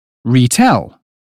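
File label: "retell", British phonetics; 英语音标发音（剑桥版）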